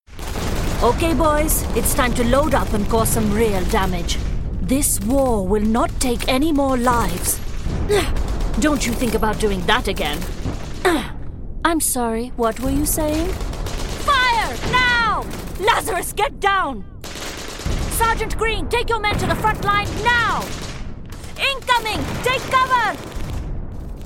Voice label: video game VO reel

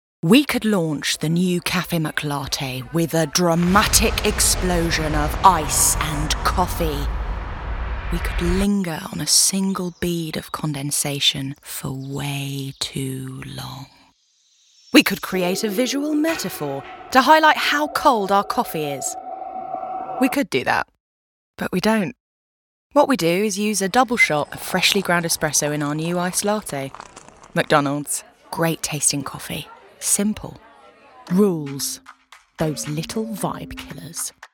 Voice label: Commercial

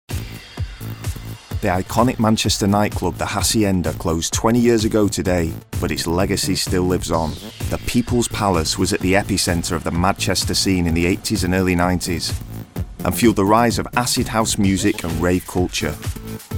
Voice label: Narrative

